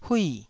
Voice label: "hui4", taiwanese syllable